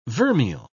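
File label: "vermeil", British English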